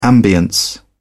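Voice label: "ambience", English, British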